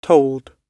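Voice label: "told", British English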